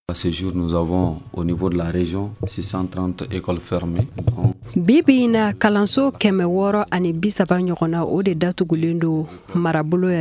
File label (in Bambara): magazine bamanankan